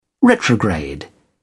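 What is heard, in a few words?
"retrograde", British English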